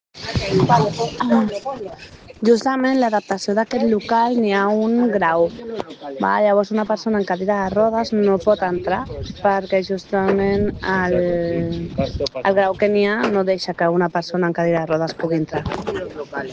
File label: Voice message